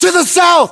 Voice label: US direction relative south, battlechatter, english